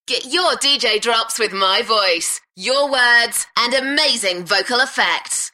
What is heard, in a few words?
Enthusiastic